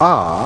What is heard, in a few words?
"r", male British